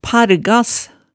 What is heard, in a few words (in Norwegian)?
"pargas", Hemsedal